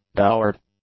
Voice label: "dowered", English; adjectives

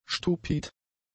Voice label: "stupid", German; adjectives

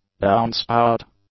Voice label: "downspout", English

